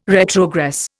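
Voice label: "retrogress", Indian English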